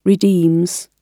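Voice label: "redeems", British English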